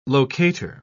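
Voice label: "locator", English, British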